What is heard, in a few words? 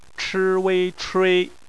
"chui", Chinese; phonetics; bpmf